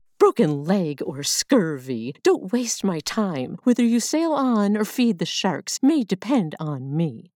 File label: grandma VO intro Line